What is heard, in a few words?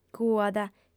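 "cuat", female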